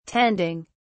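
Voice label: "tending", British English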